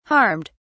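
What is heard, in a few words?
"harmed", British English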